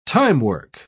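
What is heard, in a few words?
"timework", British English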